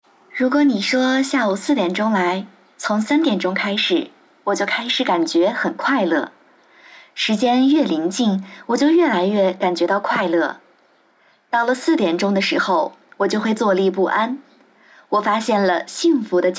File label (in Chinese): 甜美